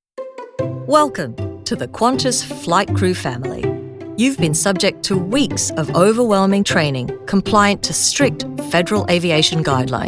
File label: E-Learning